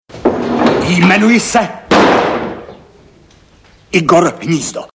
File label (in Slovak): sms hlásky cz